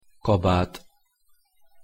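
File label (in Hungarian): "kabat" Hungarian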